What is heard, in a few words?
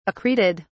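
"accreted", British English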